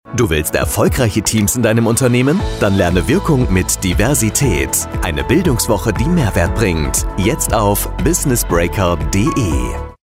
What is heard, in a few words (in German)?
funkspot; business breaker